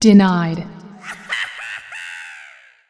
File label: "denied", female; feedback